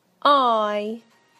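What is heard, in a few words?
"I", British English; PictureDictionary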